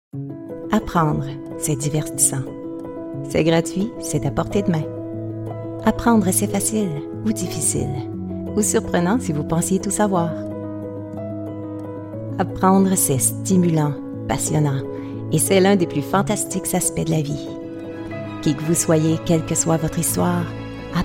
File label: FR Commercial; Hilroy